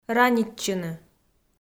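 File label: "ранитчыны", Komi, female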